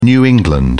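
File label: "new england", British English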